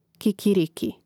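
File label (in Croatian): "kikiriki", Hrvatski; Mrežnik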